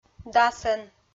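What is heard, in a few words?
"дасӧн", Komi, female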